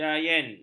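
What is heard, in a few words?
"jayeng", Malay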